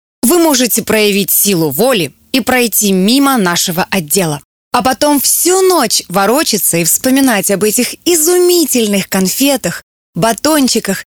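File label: Russian, female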